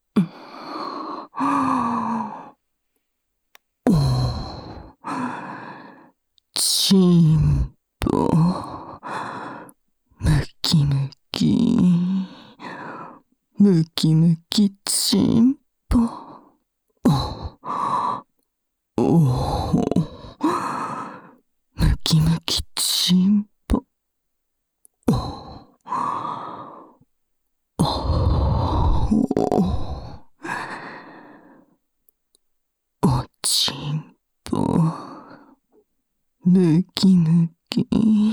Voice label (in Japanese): 淫語連呼 ムキムキ; サブキャラ